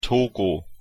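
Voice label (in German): "togo", German; pronunciation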